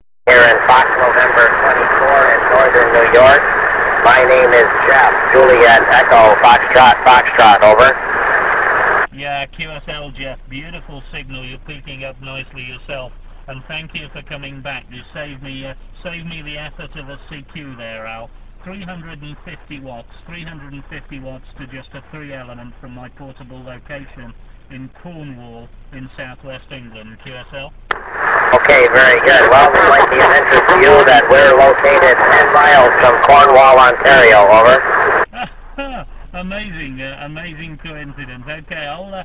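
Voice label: amateur radio contact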